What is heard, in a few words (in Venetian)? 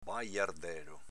"bajardelo", Veneto